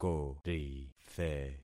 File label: "korithe", concat syllables; Affixing experiment